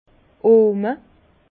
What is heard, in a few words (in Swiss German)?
"ohme", Bas Rhin; vin; mesure de 50 litres